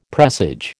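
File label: "presage", American English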